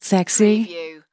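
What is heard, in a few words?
Female Says Sexy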